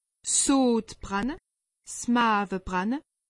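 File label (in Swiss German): "sodbranne", Alsatian; medecine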